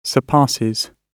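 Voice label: "surpasses", British English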